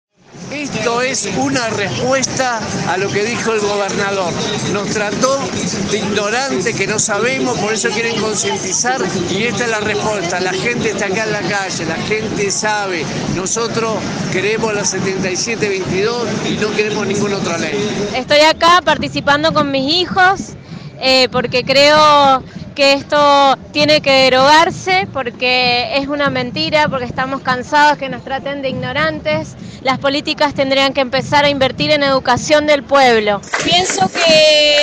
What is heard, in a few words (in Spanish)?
TESTIMONIOS MARCHA